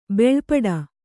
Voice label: "ಭಾಳಪಟ್ಟ", Kannada